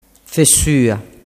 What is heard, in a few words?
"fessua", dialettu